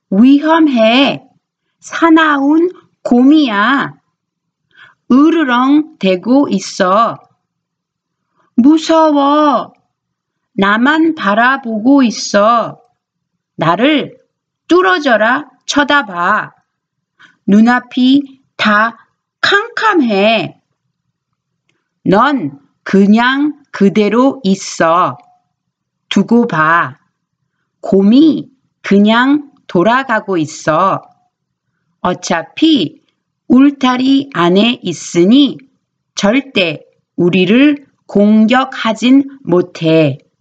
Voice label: Conversation